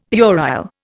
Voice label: "puerile", Indian; Dictionary